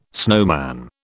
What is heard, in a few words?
"snowman", British